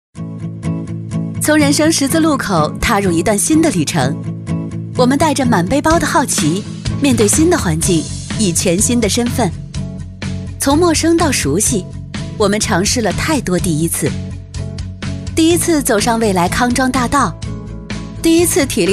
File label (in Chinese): 女, 宣传片, 学校年轻感; 质感磁性; 女42-全能型